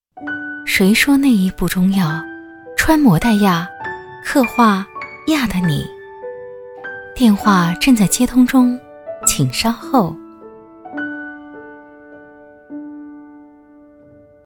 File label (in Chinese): 彩铃 俏皮, 国语女声配音; 优雅